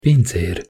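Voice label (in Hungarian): "pincer" Hungarian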